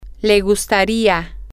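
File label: she would like, Spanish, female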